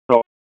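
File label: Raleigh Police Northeast District Dispatch, p25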